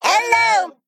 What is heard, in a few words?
Male Mech, ERROR TAUNT; en; AnnoyO